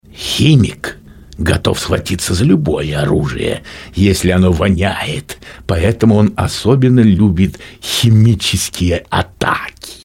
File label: narr